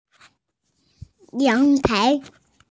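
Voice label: "yang2 tai2", Mandarin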